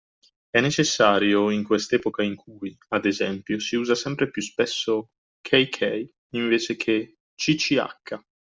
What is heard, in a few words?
italian